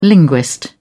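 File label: "linguist", British English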